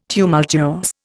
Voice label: "tumultuous", Indian